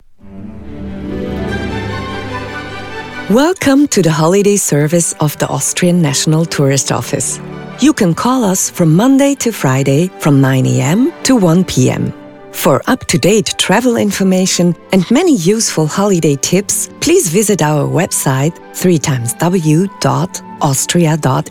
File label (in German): Nacht EN allgemein Werbung; englischen Hörproben; Oesterreich Werbung